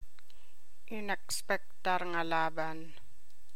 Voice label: Ilocano